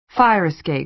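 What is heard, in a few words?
"fire escape", American English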